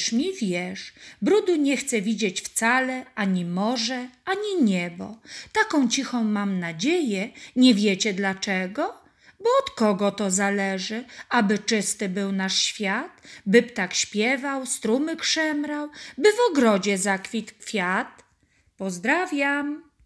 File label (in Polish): wiersz Wszędzie czysto